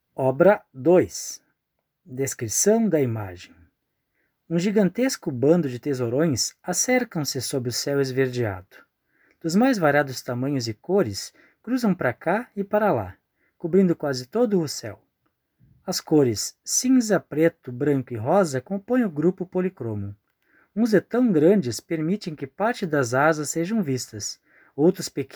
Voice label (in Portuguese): audiodescricao; Galeria virtual